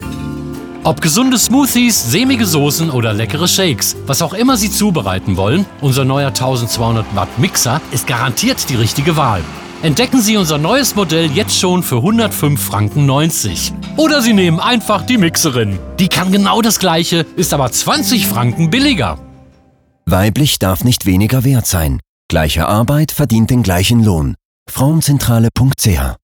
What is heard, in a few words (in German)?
Radio; Frauenzentrale; Mixerin